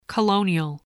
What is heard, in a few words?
"colonial", voice